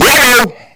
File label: "yo" distorted; ooh ahh